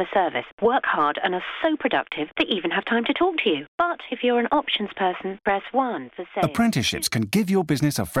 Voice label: call centre